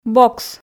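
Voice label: "бокс", Komi, female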